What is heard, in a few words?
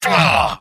engineer negative vocalization; tf2; mvm